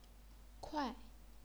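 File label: "kuai", Chinese, fourth tone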